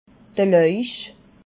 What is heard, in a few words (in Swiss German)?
"lauich", alsace